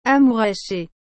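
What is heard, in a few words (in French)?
"amouracher", French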